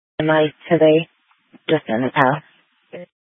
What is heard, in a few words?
Police South Dispatch, scanner; Glendale Police; Simulcast B- Phoenix Fire and Public Works